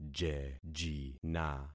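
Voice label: "jejina", concatenated syllables; Affixing experiment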